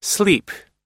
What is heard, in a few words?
"sleep", us